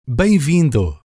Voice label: "Bem Vindo", Portuguese

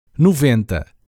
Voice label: "noventa", Portuguese; numbers in portuguese

